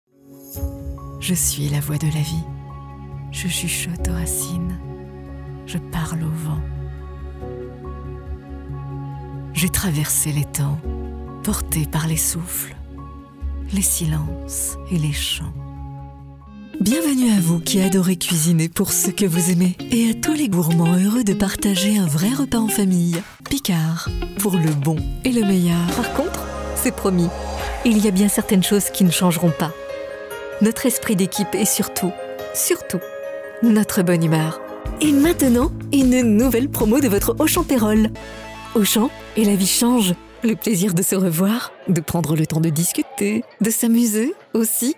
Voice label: Voice Fr Commercial